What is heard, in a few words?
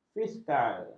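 "fiskal", Malay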